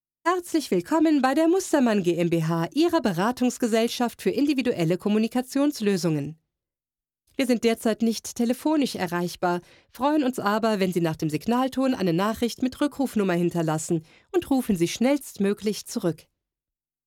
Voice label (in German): Telefonansagen